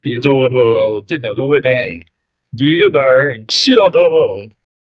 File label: an australian police offi, speech